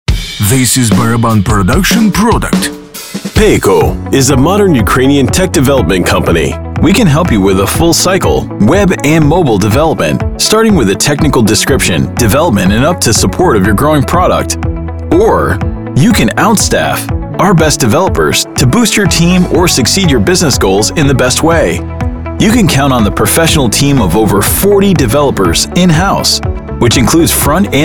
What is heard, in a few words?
English VO; Peiko; Baraban